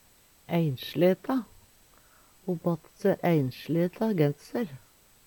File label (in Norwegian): "einsleta", Numedalsmål; Ho batt se einsleta genser.